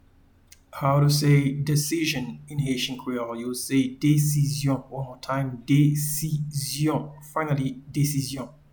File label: Decision in Haitian Creole Desisyon